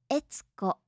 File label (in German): "etsuko", japanische maedchennamen